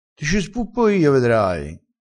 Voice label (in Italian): "spuppa", crespinese